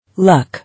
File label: "Luck", English